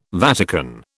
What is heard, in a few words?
"Vatican", British